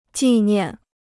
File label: "纪念", Chinese